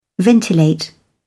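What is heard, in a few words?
"ventilate", British English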